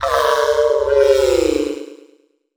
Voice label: VOICEFX TEKNO 140, Techno, Voice

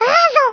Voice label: "boring", French

